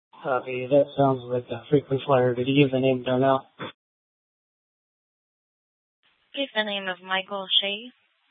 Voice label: Mesa PD Central Dispatch, scanner; TOPAZ Regional Wrlss Cooperative (TRWC); Mesa Simulcast